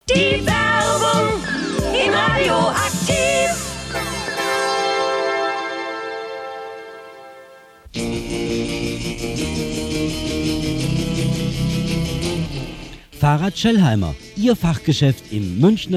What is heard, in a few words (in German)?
Werbung